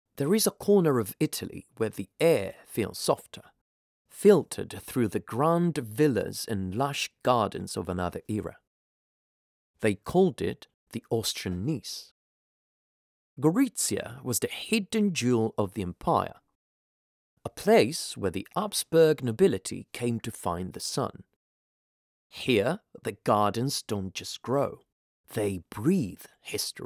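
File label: Italian male voice over, English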